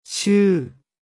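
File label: "chuue", Thai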